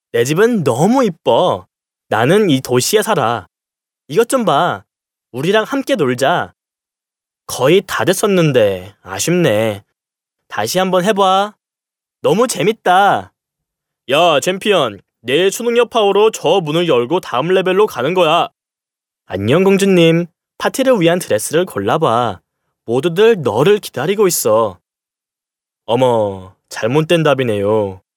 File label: Male Korean Toys